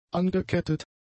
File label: "angekettet", German; adjectives